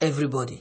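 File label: "everybody", Nigerian Pidgin, male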